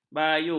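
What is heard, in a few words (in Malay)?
"bayu", Malay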